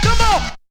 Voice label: "come on", vox FX